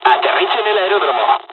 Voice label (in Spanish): fly, dialogs spanish; War Thunder